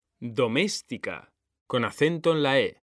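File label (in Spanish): "doméstica", Spanish; mekanta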